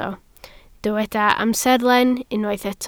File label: "amserlen", Welsh